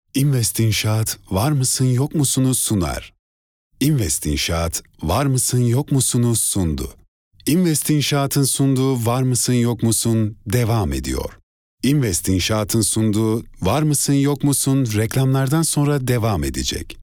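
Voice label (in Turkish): sundu sunar, sicak; seslendirme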